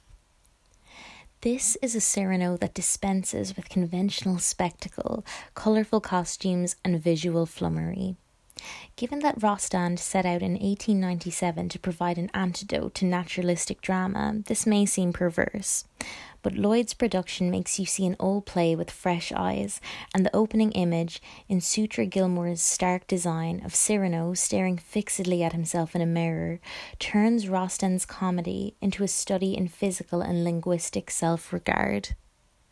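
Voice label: English, Corporate Irish; GoLocalise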